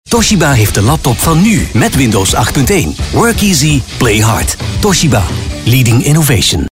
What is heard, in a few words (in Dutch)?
werbung